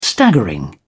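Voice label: "staggering", British English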